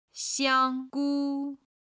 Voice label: "xiang1gu1", chinese